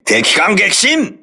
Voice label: voice message enemy destroyed, crew ships japanese